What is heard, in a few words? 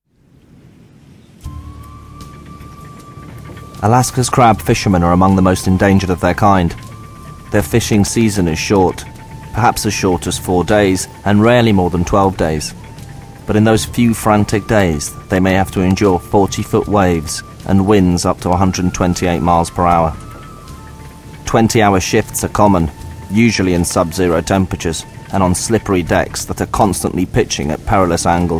Narrative UK